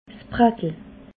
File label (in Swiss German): "brattel", alsace